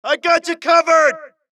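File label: suppress, dialogue, Allies; mohaa; Mission 2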